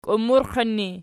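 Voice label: "kiummurqin" ergative, Archi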